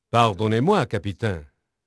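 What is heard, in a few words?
French male, voice; Pirates Of The Caribbean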